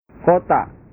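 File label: "kota", Indonesian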